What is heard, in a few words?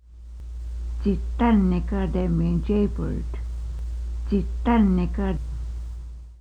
jaybird, quapaw words